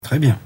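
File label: "Très bien", French; bruitage; Paroles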